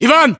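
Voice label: "ivan", battlechatter, Russian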